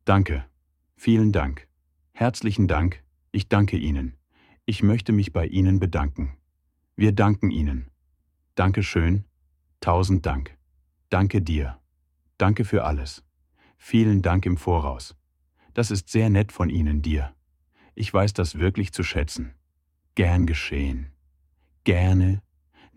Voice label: thank you in german